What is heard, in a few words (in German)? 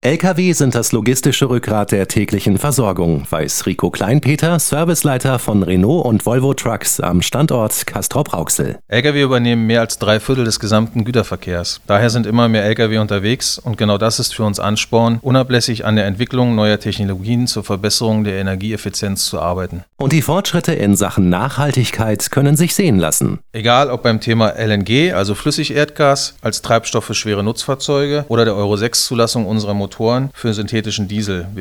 Infomercial Radio; Volvo Concept Truck; Radio Vest